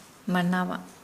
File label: Nukuoro; breathe